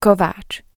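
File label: "kováč", Slovak; DP-Logopedicka-Platforma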